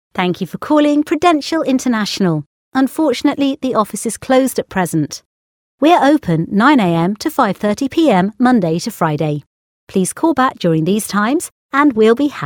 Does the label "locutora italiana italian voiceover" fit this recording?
No